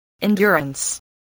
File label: "endurance", spelling